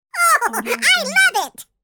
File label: cartoon character "oh i love it"